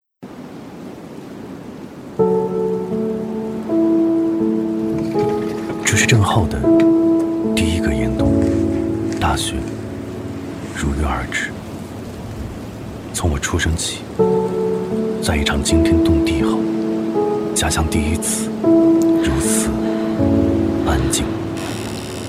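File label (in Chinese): 国语 男声 微电影旁白 磁性、叙述 低沉 调性走心 感人煽情 素人; 九寨的女儿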